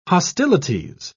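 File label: "hostilities", British English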